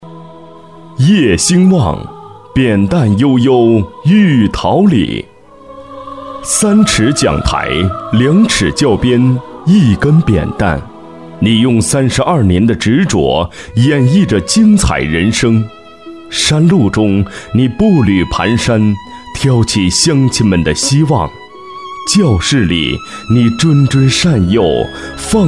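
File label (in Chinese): 专题, 男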